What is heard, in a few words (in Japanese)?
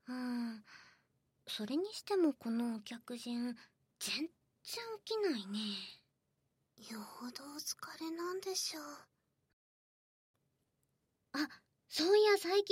ガールズトーク; アキとゆりのガールズトーク